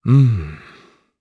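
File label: Vox Think, Japanese; Siegfried; kingsraid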